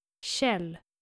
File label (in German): "kjell", skandinavische jungennamen